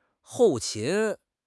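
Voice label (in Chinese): "后勤", Chinese; HSK6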